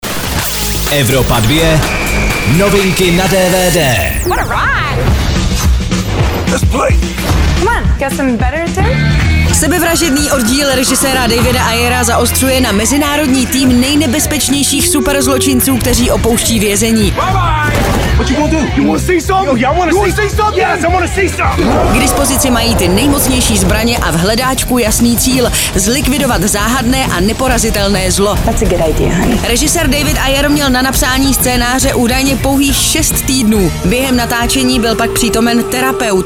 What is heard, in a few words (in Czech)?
dvd news; evropa2; sebevrazedny oddil